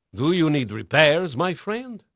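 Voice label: Portuguese male, voice; Pirates Of The Caribbean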